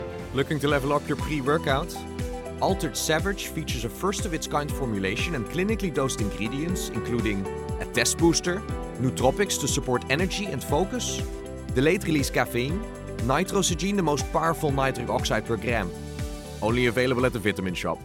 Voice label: English Dutch accent; Dutch, European, Male, Home Studio, 20s-30s